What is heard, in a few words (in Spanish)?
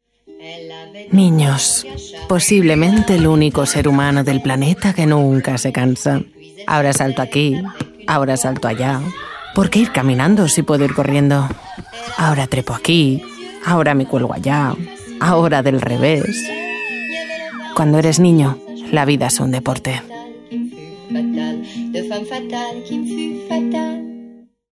SPOT NATURAL MADRE